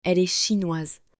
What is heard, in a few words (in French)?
"Elle est chinoise", French